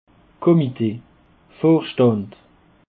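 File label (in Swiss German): "comite", Alsatian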